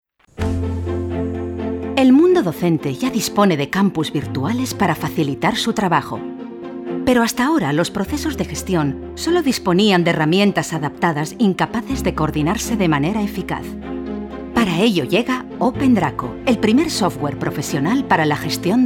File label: spanish automotive